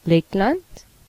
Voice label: "latvia", af; gcompris; geography

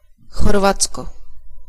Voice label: croatia, cs; gcompris; geography

